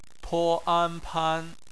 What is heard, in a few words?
"pan", Chinese; phonetics; bpmf